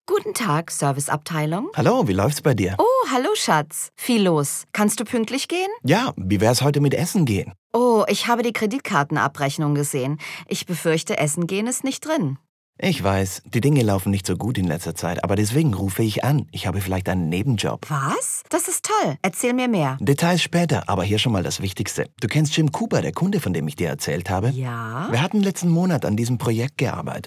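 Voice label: German acting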